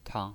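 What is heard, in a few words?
"tang", Mandarin Chinese pinyin, neutral tone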